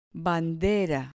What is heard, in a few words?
"bandera", Spanish